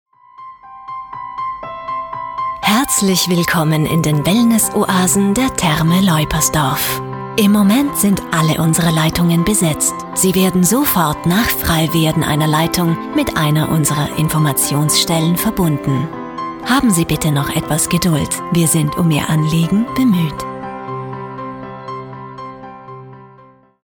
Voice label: Telefonansage; Therme Loipersdorf

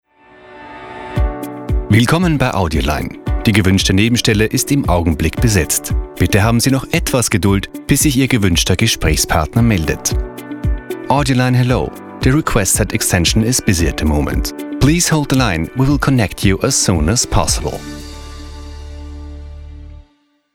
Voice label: Warteschleife

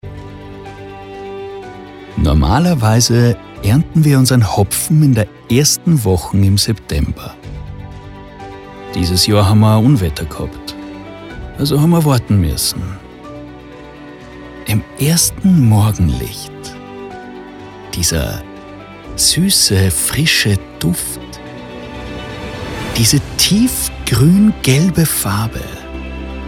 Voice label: Werbung Bier

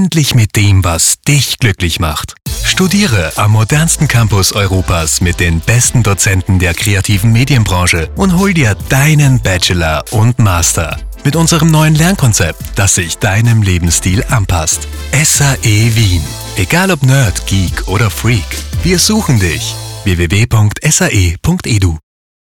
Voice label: Spot Montag; SAE

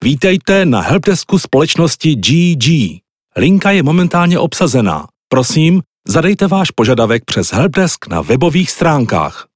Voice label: Sondertext tschechisch; Gebauer und Griller

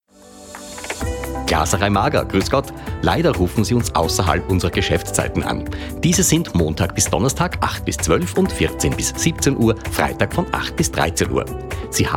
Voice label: telefonschleife mager

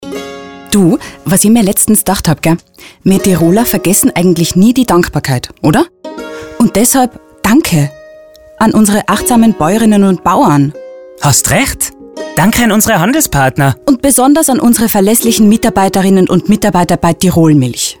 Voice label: MILCH SPOT DIALEKT; TIROL

